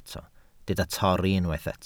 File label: "torri", Welsh